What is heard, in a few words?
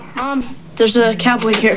cowboy